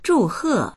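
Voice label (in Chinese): "zhu4he4", Mandarin Chinese; zhùhè; 祝贺; 祝う；祝賀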